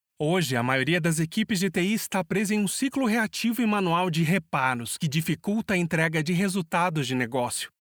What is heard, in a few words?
portuguese brazilian narration